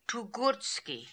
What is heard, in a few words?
"Toogoortski", pronounciations; Fourth Way; Beelzebub